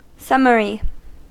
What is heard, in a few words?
"summary", us